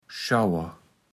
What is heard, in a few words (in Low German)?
"Schauer", Platt